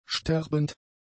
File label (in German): "sterbend", German; adjectives